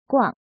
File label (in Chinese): "guang4", 汉字语音; chinese-voice